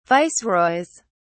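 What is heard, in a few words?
"viceroys", British English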